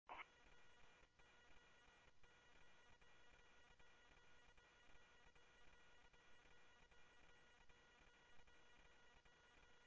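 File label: police radio dispatch, Scottsdale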